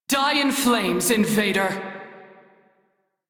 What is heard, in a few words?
Crew Gun Kill Fighter, Female; Dialog; en; Warframe; Railjack Crew; New Loka